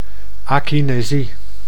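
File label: "akinesie", Dutch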